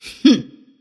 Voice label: happy; antimage